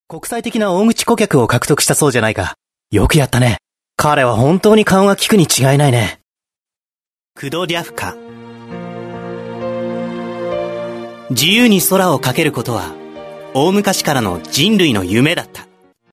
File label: Japanese Professional Male, Foreign Voice Talent